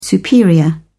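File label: "superior", English, British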